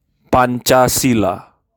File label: "Pancasila" spoken; Панчасила